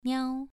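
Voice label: "niao", Mandarin Chinese syllable, second tone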